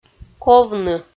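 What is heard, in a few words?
"ковны" Komi, female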